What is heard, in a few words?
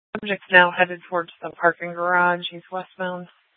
Gilbert Police Dispatch; TOPAZ Regional Wireless Cooperative (TRWC) - Mesa Simulcast; 1 Dispatch